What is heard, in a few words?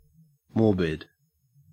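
"morbid", Australian English; pronunciations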